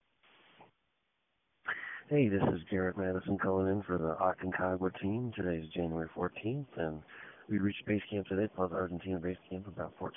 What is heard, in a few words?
voicemail